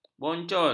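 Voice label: "boncol", Malay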